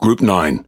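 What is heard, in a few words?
Pirate Speech Pilots Fighter, English pirate; World Of Warships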